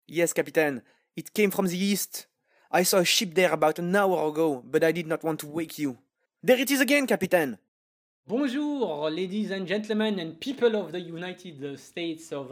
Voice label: male English with French accent; Devine Voices